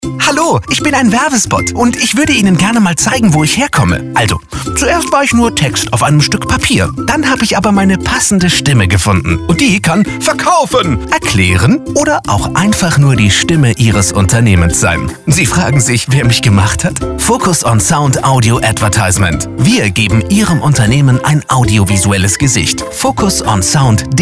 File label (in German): Funkspot aufgedreht